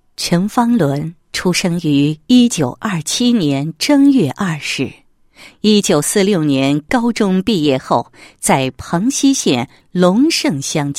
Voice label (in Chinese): 女 祝贺词 寿宴祝贺词